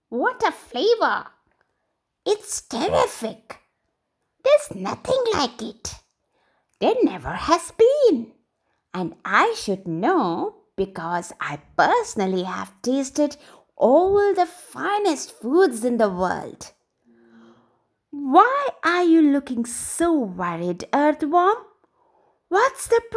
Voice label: english indian animation